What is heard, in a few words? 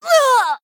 Female Human Death